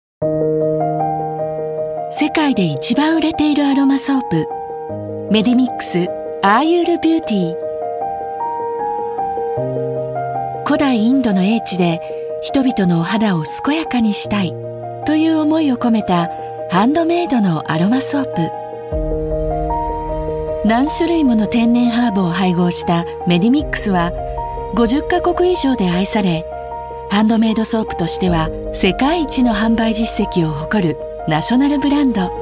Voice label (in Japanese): 高級感、エレガント、癒し; MEDIMIXアロマソープ